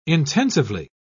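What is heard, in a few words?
"intensively", British English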